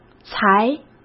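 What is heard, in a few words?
"裁", Mandarin Chinese; chinese-flashcards; hsk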